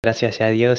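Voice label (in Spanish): "a gracias dios", español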